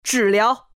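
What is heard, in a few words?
regen, chinese; dota2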